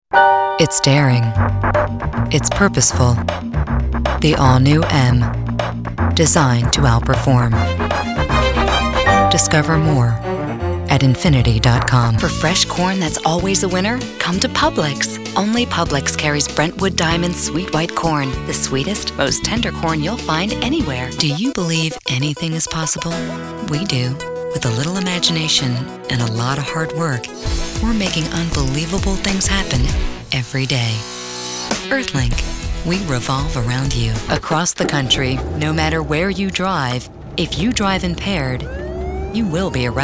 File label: English, english females